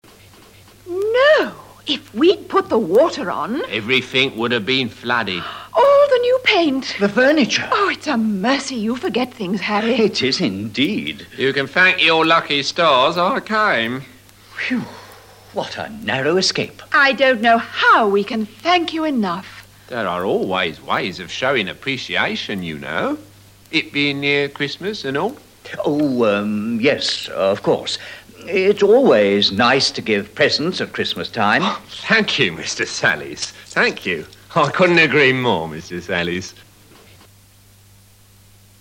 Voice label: dialog